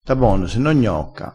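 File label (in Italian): "gnocca", vocabolario dialettale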